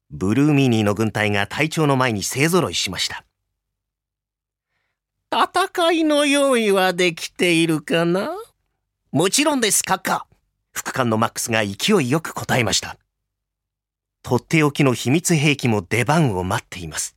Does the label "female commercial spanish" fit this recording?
No